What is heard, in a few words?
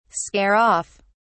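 "scare off", British English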